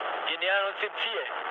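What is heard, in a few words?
German near waypoint mood low, speech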